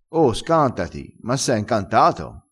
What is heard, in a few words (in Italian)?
"scantassi", crespinese